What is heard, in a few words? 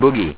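"bandit", english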